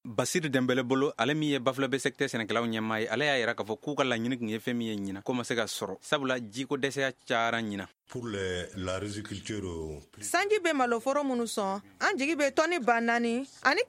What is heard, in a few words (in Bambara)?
magazine bamanankan